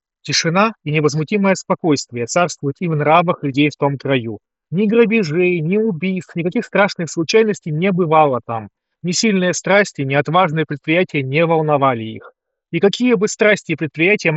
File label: Mic noise; huawei freebuds pro 5